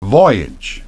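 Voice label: "voyage", English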